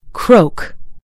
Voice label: "croak", en US; gcompris

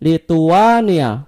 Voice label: "lithuania", id; gcompris-data; geography

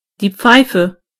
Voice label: pipe, de; gcompris

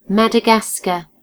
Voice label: "madagascar", en GB; gcompris

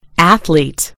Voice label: "athlete", en GB; gcompris

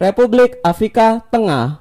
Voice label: "central african republic", id; gcompris-data; geography

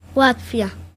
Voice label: latvia, bg; gcompris; geography

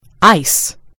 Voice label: "ice", en GB; gcompris

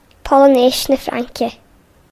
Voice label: french polynesia, ga; gcompris-data; geography

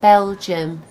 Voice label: "belgium", en GB; gcompris

